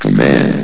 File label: "command", vox; Source Engine; bshift